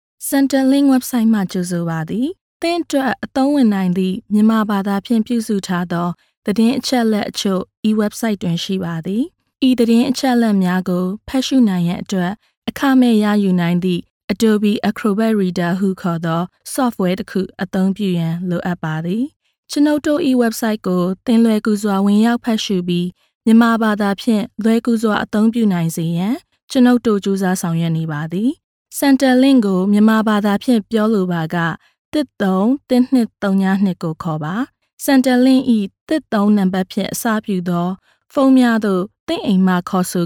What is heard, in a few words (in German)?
Nachvertonung Film Sprecherin Birmanisch